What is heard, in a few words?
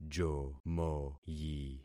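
"jomoyi", concatenated syllables; Affixing experiment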